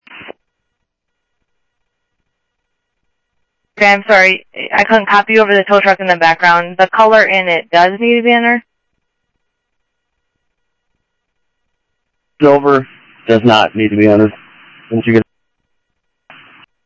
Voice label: Sheriff, scanner audio